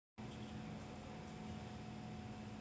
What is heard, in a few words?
voice message